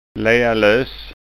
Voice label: "lealos", skanskaord

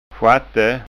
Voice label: "sjatte", skanska ord

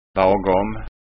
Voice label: "bagom", skanska ord

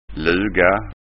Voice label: "luga", skanskaord

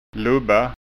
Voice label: "lubba", skanskaord

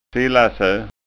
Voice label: "sillaso", Skånska

